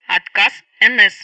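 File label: Speech Sound Russian Common Messages; Betty; NCSFailure; DCS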